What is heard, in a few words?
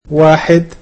"one", egyptian